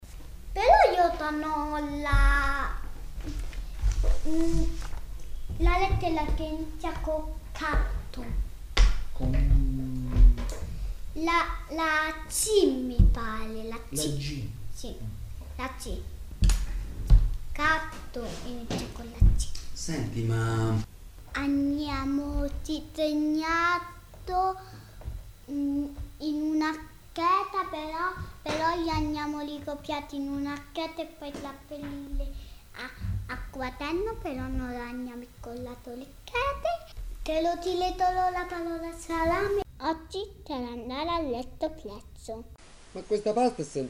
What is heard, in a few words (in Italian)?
disturbo fonologico; fonetica